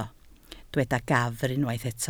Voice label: "gafr", Welsh